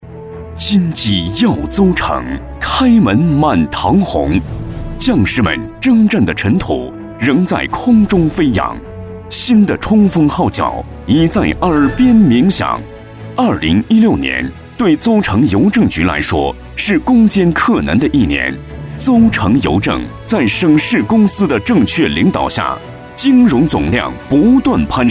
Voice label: 宣传片, 企业, 邮政局, 大气

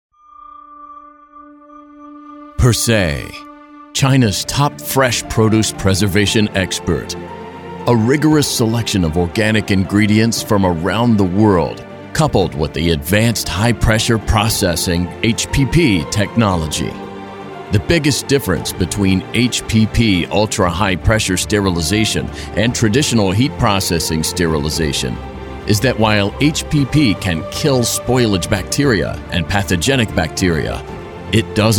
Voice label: 外籍男英 外语 美式英语 沉稳

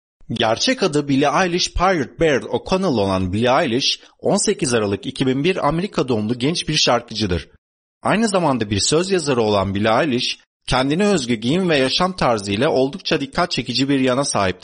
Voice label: 土耳其语男, 稳重; 外语; 小语种

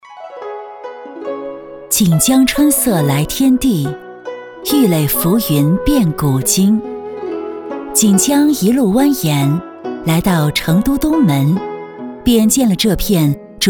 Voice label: 宣传片 旅游 抒情, 女; 锦江春色来天地

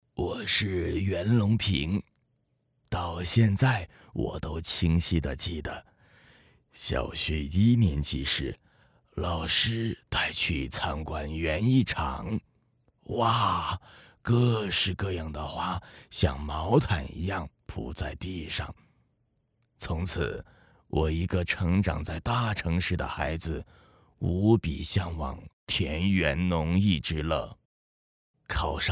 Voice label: 男国 动画 老人; 袁隆平

